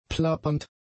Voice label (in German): "plappernd", German; adjectives